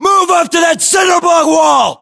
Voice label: US order cover wall cinderblock, battlechatter, english